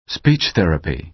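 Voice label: "speech therapy", American English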